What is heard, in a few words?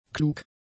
"klug", German; adjectives